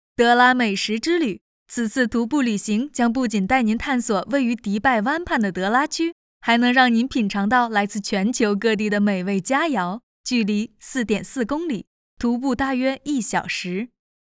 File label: chinese voiceover; Emirates